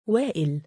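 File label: "waa2il", Arabic, male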